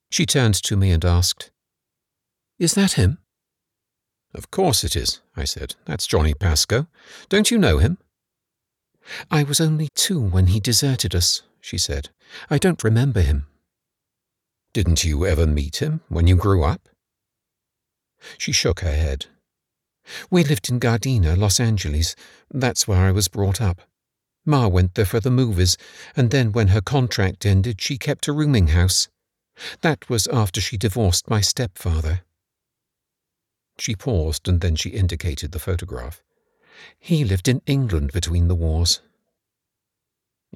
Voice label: MF Dialogue 1st Person; Rainbow and the Rose

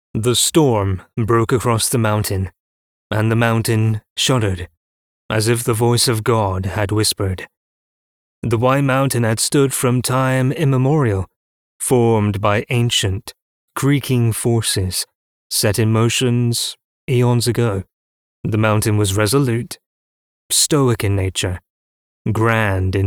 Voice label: Audiobook Sample; Masculine Voices

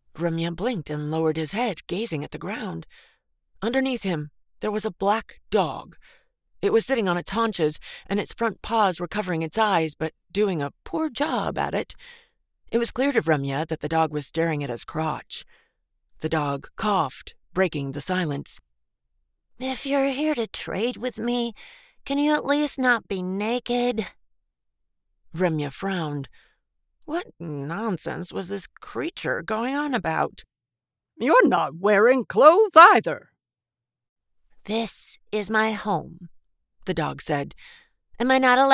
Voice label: LitRPG, Feminine Voices

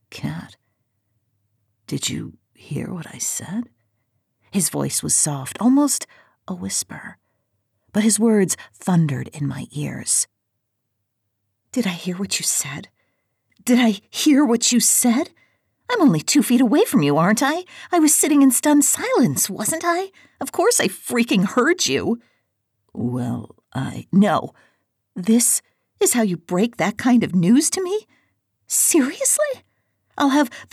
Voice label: Fiction Romance

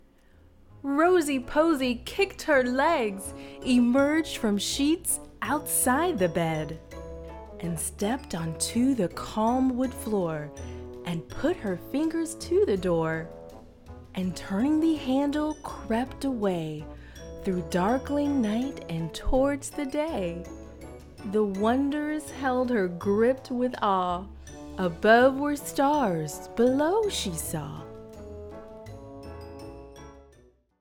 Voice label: Read; Rosey Posey

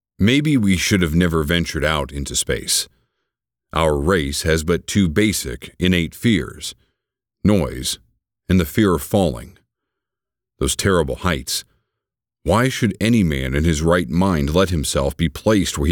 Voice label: Audiobook Generic

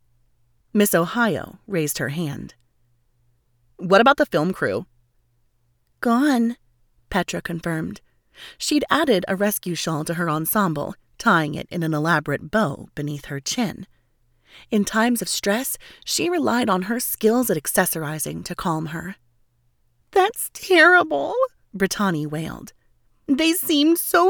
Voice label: YA Comedy Sample; Feminine Voices of the Legion

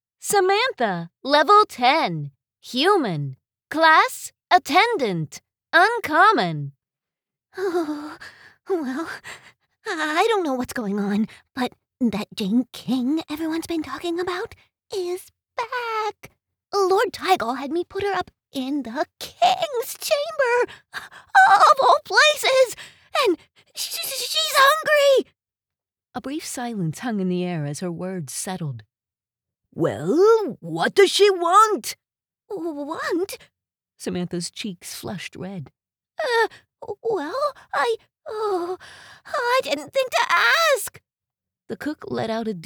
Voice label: LitRPG, feminine voices

